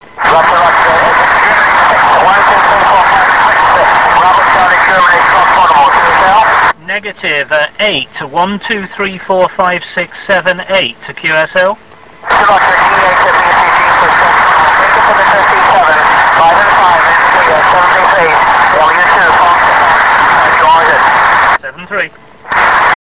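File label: amateur radio contact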